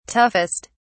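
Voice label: "toughest", English, British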